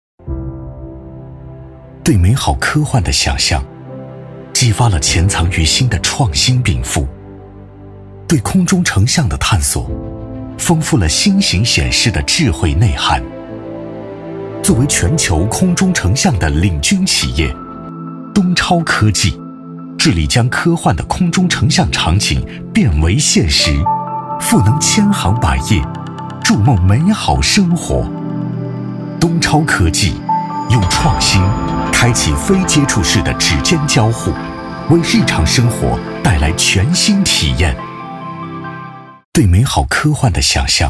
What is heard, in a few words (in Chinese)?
男 企业 科技宣传片; 东超科技